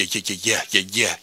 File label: "ye ye ye ye yeah ya yeah", Voice